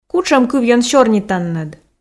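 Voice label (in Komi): кутшӧм кывйӧн сёрнитанныд, Komi, female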